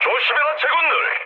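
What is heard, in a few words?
Korean near waypoint mood medium; War Thunder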